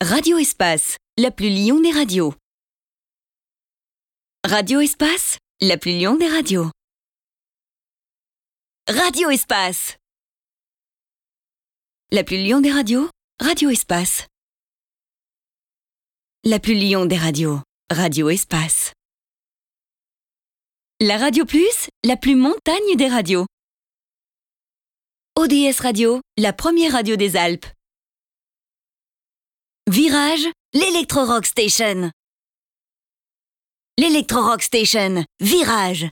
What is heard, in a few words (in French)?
Voix F